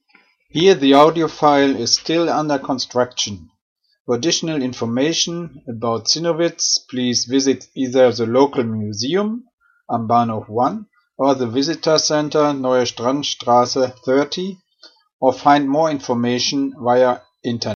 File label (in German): Audio vorläufiger Hinweis English